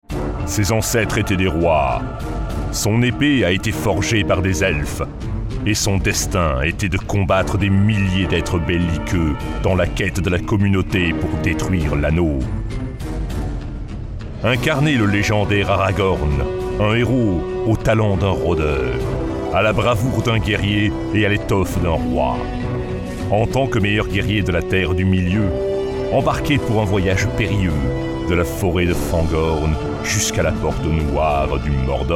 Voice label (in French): Voix grave epique BA Jeux Video; Aragorn